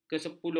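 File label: "kesepuluh", Malay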